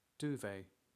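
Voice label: "duvet", English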